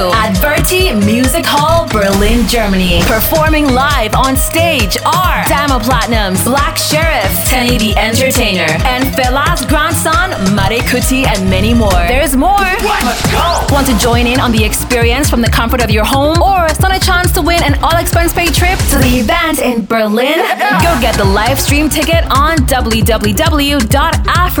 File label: AFRO BEATS MEETS BELIN VO